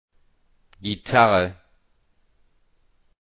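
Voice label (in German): "Gitarre", German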